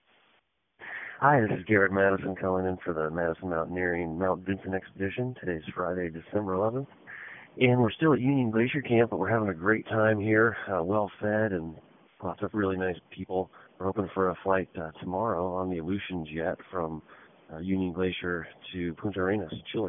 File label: voicemail